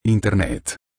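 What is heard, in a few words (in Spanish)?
"internet", español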